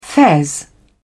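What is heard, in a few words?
"fez", English, British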